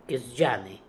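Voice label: "Kesdjanian", pronunciations; Fourth Way; Beelzebub pronounciations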